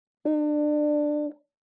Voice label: "u", Chinese